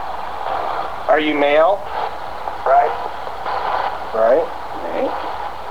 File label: blower room, male; EVP or Electronic Voice Phenomena; ARE YOU MALE? then response; Marietta; hauntedtheatres; players theatre